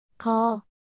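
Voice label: "거", Korean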